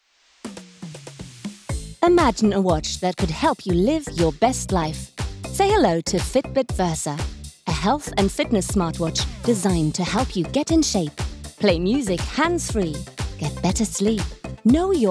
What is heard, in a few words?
female English with undetectable accent commercial; fitbit